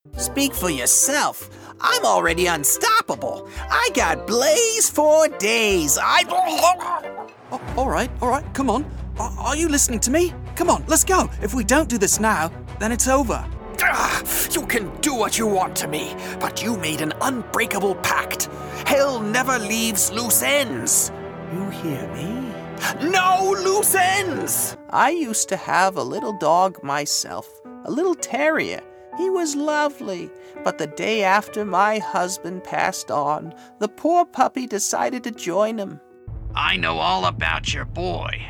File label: VO Characters